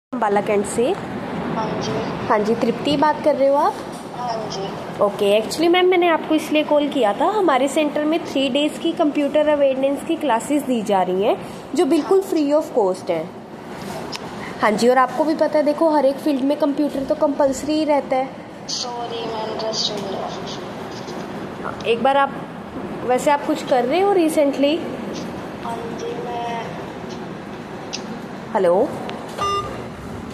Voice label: call recording